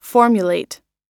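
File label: "formulate", American English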